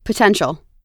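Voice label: "potential", American English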